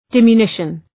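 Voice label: "diminution", English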